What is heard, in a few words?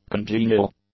"congenial", English; adjectives